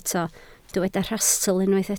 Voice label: "rhastl", Welsh